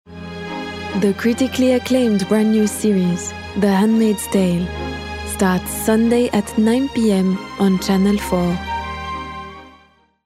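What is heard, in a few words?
French English female Commercia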